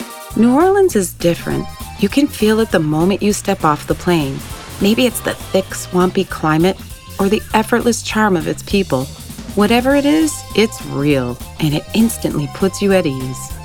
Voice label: Narration